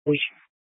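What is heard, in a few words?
Incident Tac 3, Scanner audio recording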